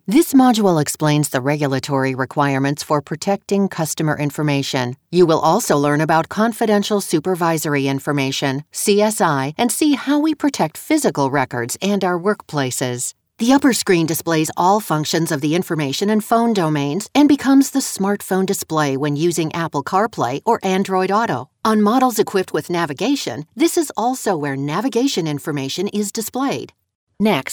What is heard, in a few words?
eLearning